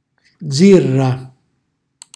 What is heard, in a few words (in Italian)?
"zirra", calabrese; ira; cucinacalabrese; glossario